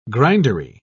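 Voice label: "grindery", British English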